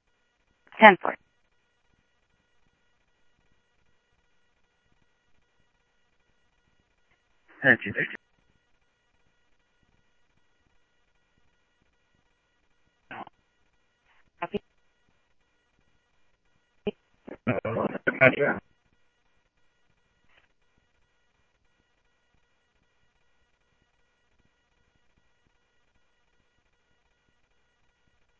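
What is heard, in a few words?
Sheriff West County, scanner audio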